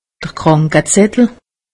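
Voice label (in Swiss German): "krankezettel", Alsatian; medecine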